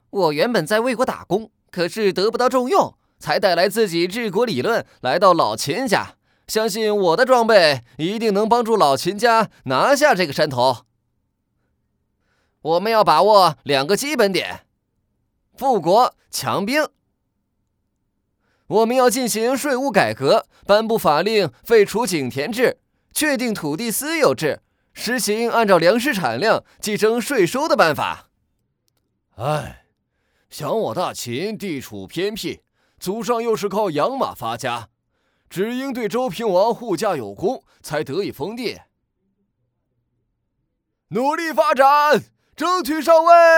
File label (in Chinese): 男 角色 低龄